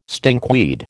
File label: "stinkweed", American